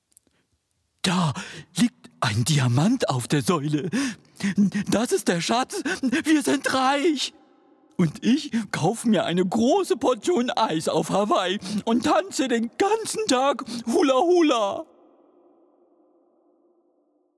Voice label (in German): Hörspiel; LOFT VOICES